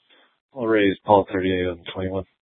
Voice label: Mesa PD Central Dispatch, scanner; Mesa Simulcast